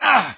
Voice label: rebel stormtrooper hurt; lego star wars